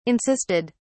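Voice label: "insisted", British English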